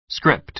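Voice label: "script", American English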